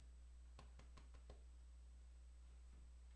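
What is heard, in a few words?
"pick", spoken word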